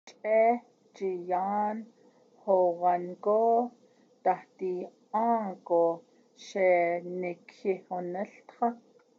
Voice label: When I set out for home with the melon it started, Navajo